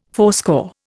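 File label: "fourscore", Indian English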